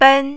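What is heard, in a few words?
"ben" tone 1, Mandarin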